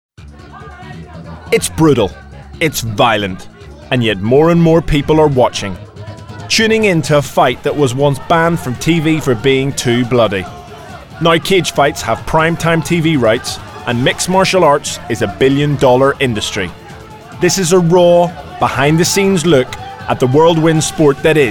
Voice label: Narrative Reel